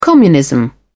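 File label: "communism", British English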